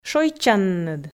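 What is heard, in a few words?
"шойччанныд", Komi, female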